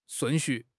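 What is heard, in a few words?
"shun4 xu4", Mandarin Chinese; HSK4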